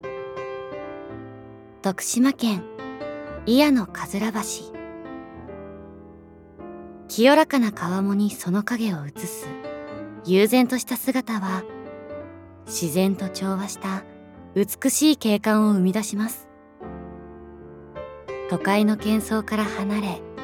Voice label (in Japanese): ナレーション